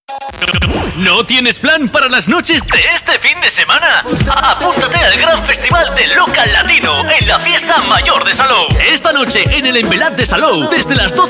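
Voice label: doblaje, Basque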